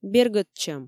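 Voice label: "бергӧдчӧм" Komi, female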